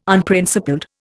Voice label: "Unprincipled", Indian